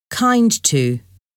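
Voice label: "kind to", English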